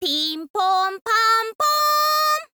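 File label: pinpon panpon, Japanese, girl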